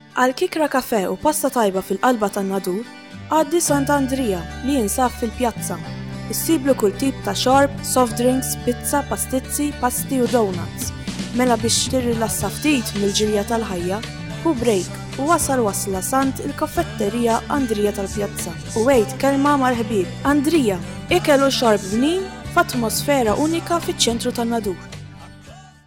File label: Maltese